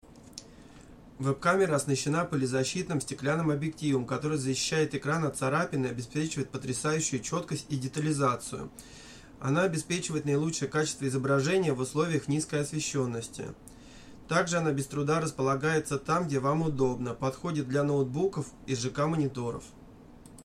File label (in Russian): Запись голоса 1 метр